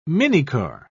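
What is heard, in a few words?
"minicar", British English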